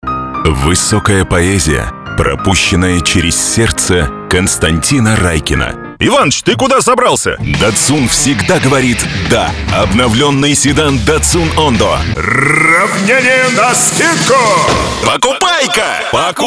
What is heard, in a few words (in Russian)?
muzhskie golosa, diktory